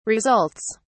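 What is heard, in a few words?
"results", English, British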